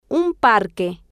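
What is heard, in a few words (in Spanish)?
un parque, Spanish, female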